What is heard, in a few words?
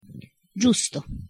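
"giusto", Italian pronunciation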